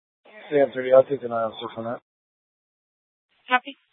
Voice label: Mesa PD Central Dispatch, scanner